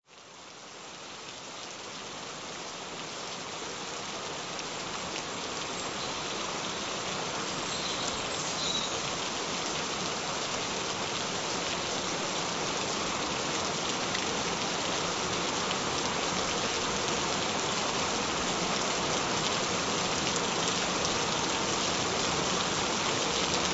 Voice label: Subliminal Affirmations - Rain Sounds - Frequency-free